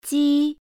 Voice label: initial "j", Chinese pinyin